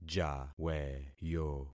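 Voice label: "jaweyo", concatenated syllables; Affixing experiment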